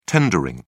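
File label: "tendering", British English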